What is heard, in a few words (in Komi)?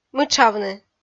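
"мытшавны", Komi, female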